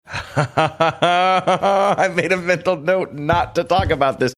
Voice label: laughing saying i made a mental note to not talk about this